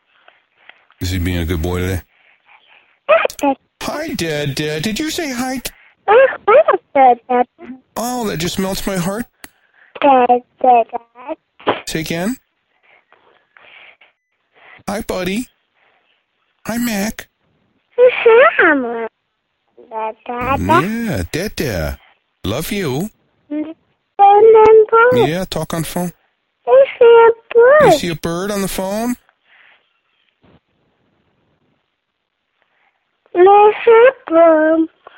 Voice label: mac speaks